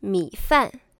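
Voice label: "mifan", Chinese; family dog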